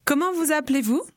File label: conversation